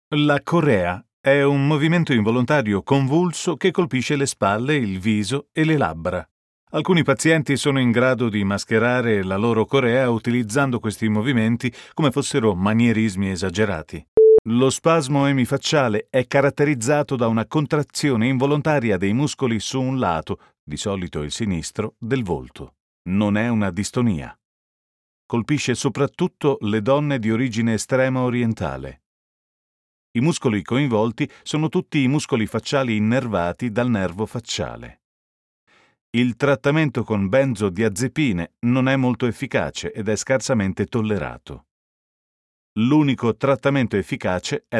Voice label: italian male e learning